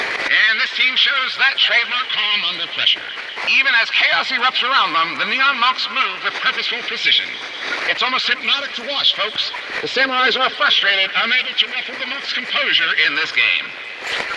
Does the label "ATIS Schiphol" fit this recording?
No